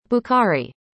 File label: "Bukhari", arabic; indonesian boy names